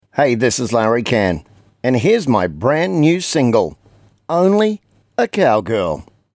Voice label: radio intro